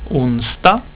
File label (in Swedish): "onsdag", swedish